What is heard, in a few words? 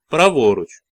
Bear Right, Ukrainian, male